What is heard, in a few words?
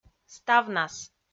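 "ставнас", Komi-Zyrian, female; audio dict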